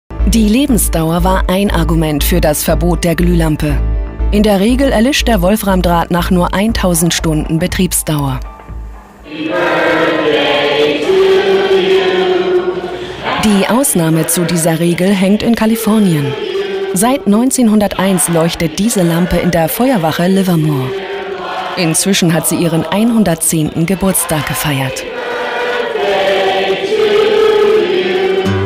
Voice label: Doku; dokus; SPIEGEL TV; Ausgebrannt Vom Ende der Gluehbirne

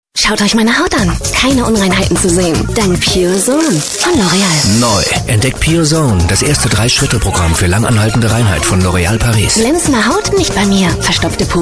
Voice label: TV-Spot, werbung; L-Oreal; Pure Zone

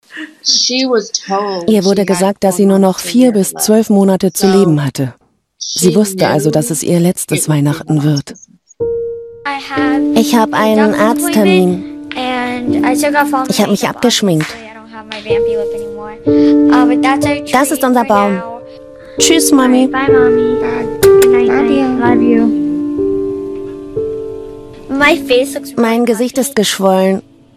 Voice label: Voiceover 2 Rollen Mutter und Tochter; WDR; Mein Krebstagebuch

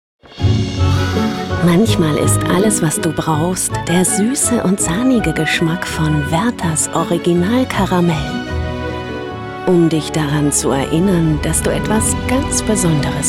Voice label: TV-Spot; Werther s Original

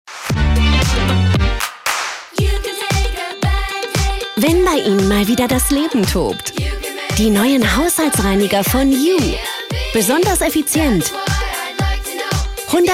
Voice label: TV-Spot, werbung

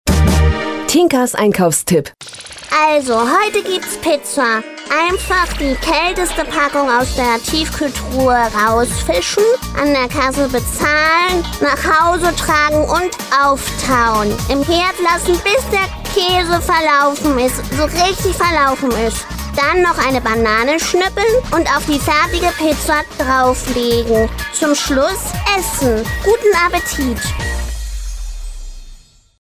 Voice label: Kinderstimme; Tinkas Einkaufstipp

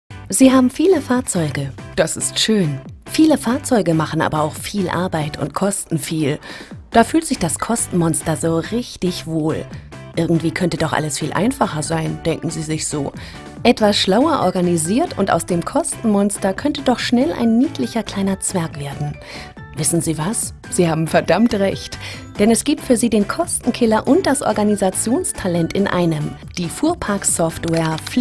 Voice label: Erklaerfilm; imagefilme; Fleet Expert

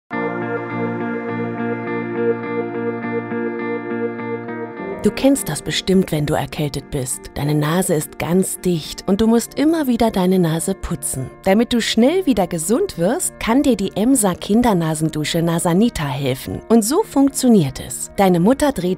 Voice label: Erklaerfilm; imagefilme; Emser Kindernasendusche

